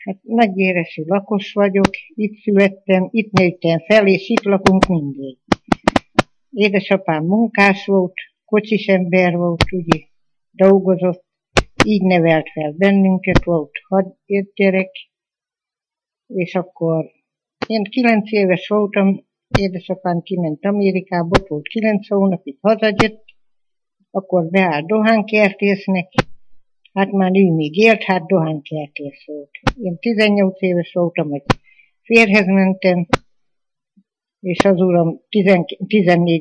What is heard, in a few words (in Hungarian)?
nyelvjaras gyujtesek